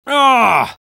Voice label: spy negative vocalization; tf2